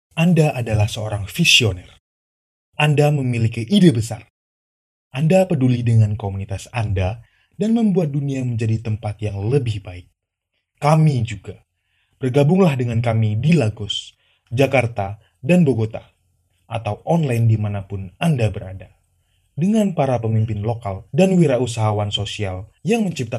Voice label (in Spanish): sample vo formal comercial